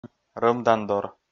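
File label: "рӧмдандор", Komi, male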